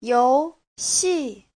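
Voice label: "游戏", Chinese; (danh từ) trò chơi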